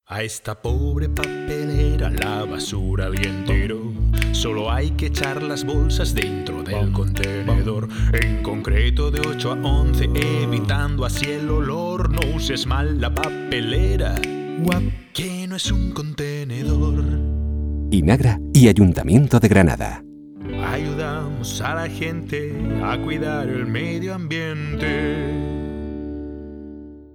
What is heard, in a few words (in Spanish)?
cuña radio publicidad; no uses mal la papelera